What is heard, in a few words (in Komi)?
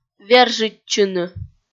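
"вержитчыны", Komi, female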